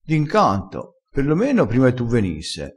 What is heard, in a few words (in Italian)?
"d incanto", crespinese